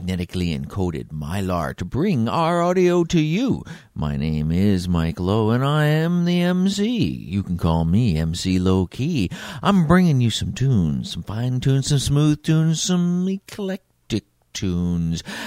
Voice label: velvet radio test broadcast